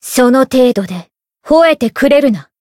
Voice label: encount fear, jp; eva

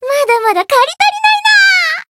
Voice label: win, jp

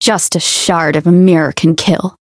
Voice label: Voice variable chant; isuka

